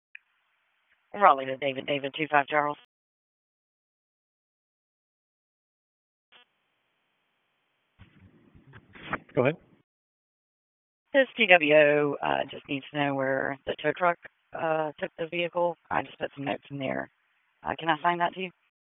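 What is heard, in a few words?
Police Southeast District Dispatch; Raleigh; wake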